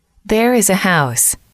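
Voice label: "there is a house", English, female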